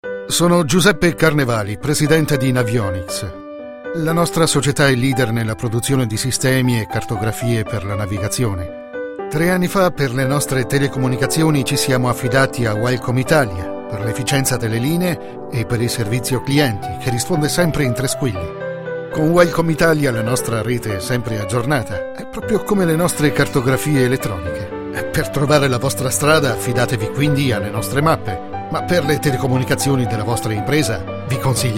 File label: Radio Spot; Radio24; Navionics